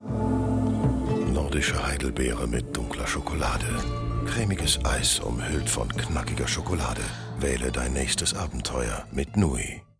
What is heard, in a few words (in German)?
Werbung OFF; NUII